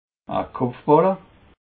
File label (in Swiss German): "kopfballe", alsace